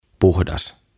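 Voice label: "Puhdas" Finnish; Clean; OTAVA KONSERNI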